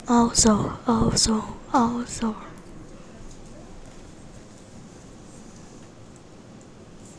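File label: "also", spoken word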